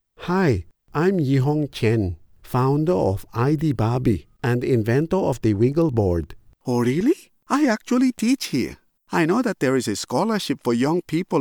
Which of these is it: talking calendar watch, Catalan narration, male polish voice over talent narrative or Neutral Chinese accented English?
Neutral Chinese accented English